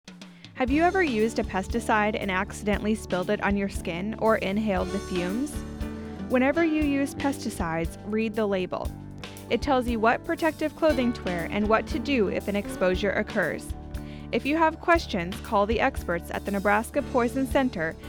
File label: Applicators Female